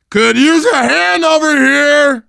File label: ledge hang start, voice, biker